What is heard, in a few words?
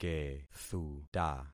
"kethuta", concat syllables; Affixing experiment